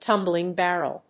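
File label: "tumbling barrel", English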